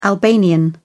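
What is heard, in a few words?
"albanian", British English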